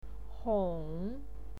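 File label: "hong", Mandarin Chinese, third tone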